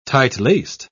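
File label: "tight-laced", British English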